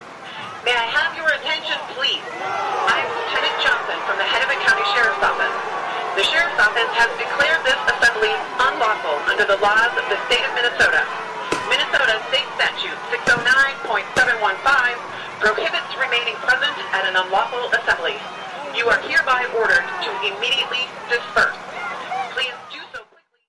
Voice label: Crowd - Police Shooting - Sheriff Announcement To Leave; MN Police Shooting